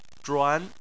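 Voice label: "zhuan" Chinese, second tone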